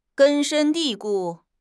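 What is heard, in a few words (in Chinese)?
"根深蒂固", Chinese; HSK6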